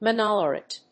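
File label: "mono-laurate", English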